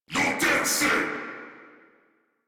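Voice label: Reload, English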